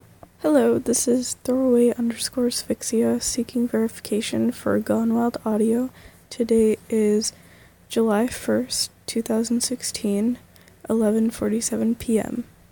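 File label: Female Verification Request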